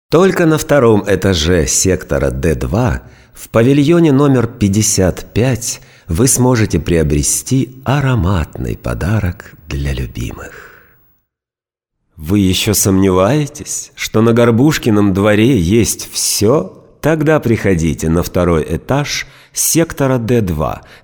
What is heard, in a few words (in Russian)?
Diktori studii; Bashnya; Gorbushka; Реклама на "Горбушкином дворе"